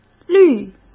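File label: "绿", Mandarin Chinese; chinese flashcards; hsk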